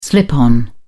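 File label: "slip-on", British English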